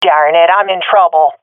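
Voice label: taking fire, swat female; vo; agents; Source Model Packs; Counter Strike Model Pack; CS2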